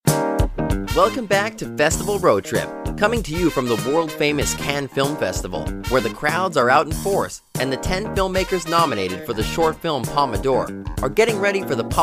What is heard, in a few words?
Voice Talent Narration